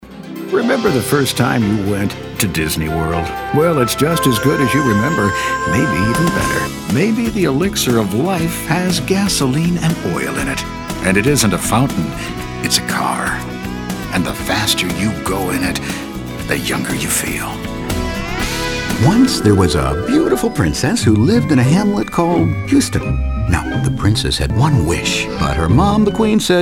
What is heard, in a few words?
men commercial; often described as 'The Voice of the Baby Boom', with a hipper edge.